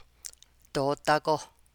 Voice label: "or", Navajo